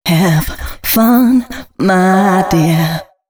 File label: female c# 135, short hooks, Dance Voice